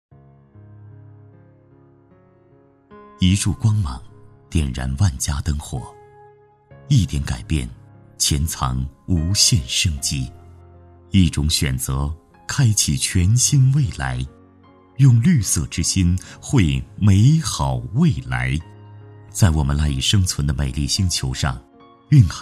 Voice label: 高端 男 旁白 深情 温暖 电力 磁性 温暖

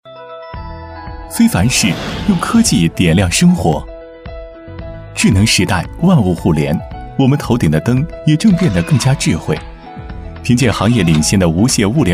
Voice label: 科技感 互联网

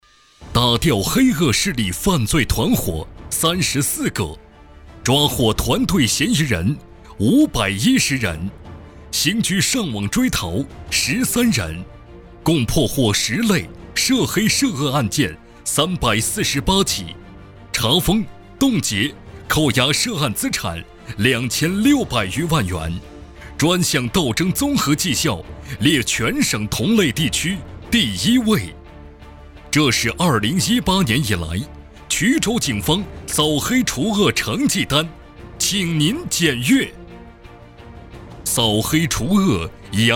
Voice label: 专题片 汇报片

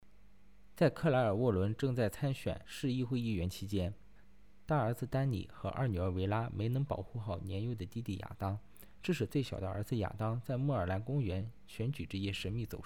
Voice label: 影视解说

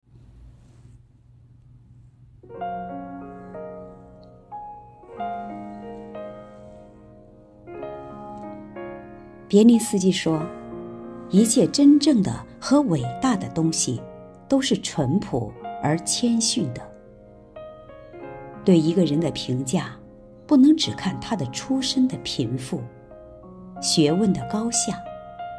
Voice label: 谦逊

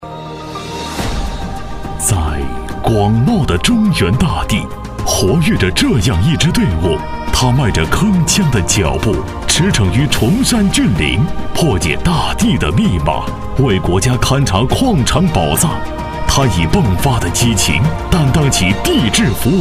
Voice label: 专题 深情 讲述; 转型发展中的地矿一院

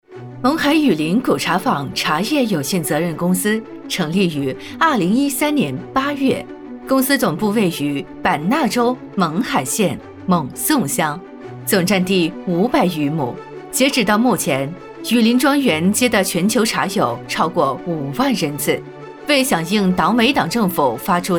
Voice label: 专宣; 古茶坊

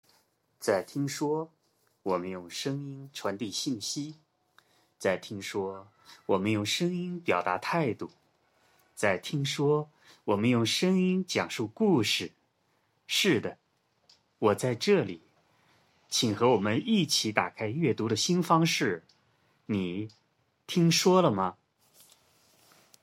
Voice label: 中文讲述类

